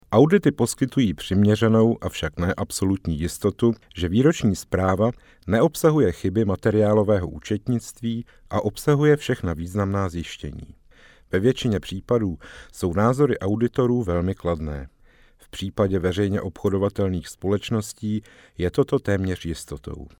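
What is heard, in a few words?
czech male voice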